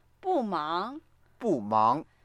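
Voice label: "bu4mang2", Mandarin Chinese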